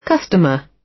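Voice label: "customer" British; Business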